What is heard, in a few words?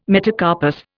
"metacarpus", Indian